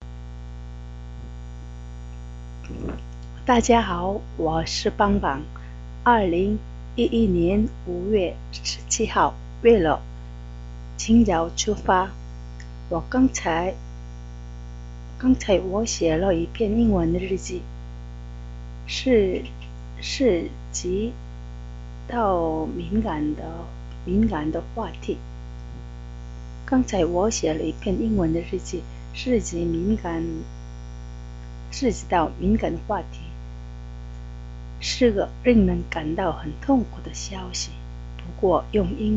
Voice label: chinese